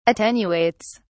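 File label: "attenuates", English, British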